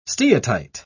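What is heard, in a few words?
"steatite", British English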